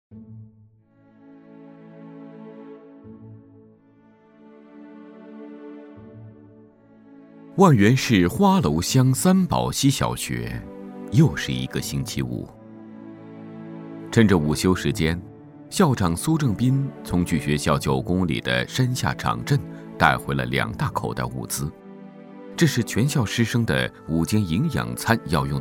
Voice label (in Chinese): 纪录片 人物, 男国; 大山里的承诺